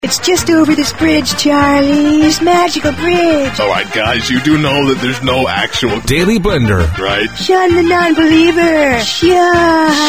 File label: Mix Liners